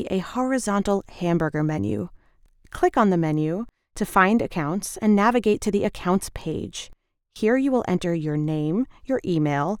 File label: ELearning Windows Media